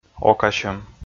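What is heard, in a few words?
"окасьӧм", Komi, male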